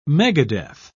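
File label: "megadeath", English, British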